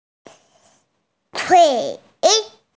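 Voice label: "tui3", Mandarin Chinese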